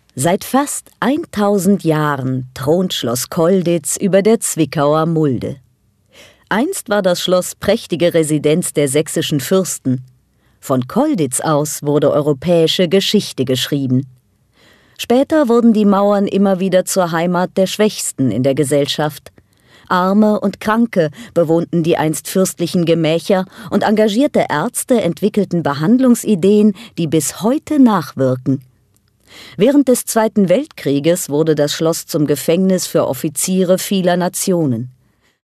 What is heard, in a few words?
german audio guides; voice actor